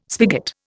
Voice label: "spigot", Indian

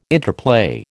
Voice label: "interplay", American

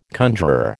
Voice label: "conjuror", American

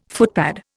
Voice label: "footpad", Indian

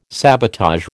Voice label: "sabotage", American

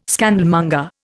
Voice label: "scandalmonger", Indian

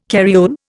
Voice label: "carriole", Indian

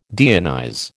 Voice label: "deionize", American

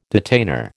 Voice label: "detainer", American

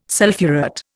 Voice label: "sulphurate", Indian

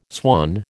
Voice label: "swan", American

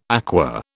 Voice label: "aqua", British

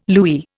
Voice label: "louis", Indian

